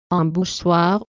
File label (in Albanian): "embauchoir", frengjisht